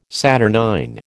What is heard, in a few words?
"saturnine", American